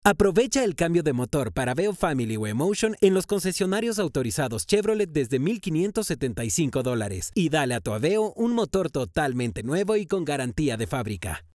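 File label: Spanish Latin American male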